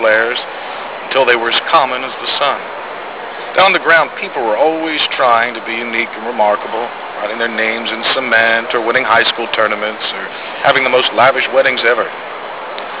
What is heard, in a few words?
fire 2 voice